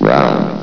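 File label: "round", male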